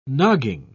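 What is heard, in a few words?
"nogging", British English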